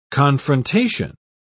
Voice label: "confrontation", English

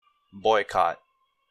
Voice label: "boycott", English

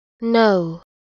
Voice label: "know", English

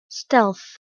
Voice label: "stealth", noun

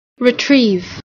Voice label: "Retrieve", English

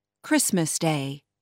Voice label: "christmas day", English

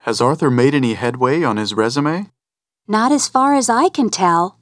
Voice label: dialog

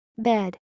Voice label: "bed", English

